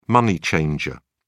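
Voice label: "money changer", English, British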